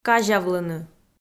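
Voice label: "казявлыны", Komi, female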